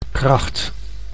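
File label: "kracht", Dutch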